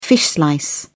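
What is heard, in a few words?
"fish slice", British English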